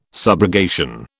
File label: "subrogation", British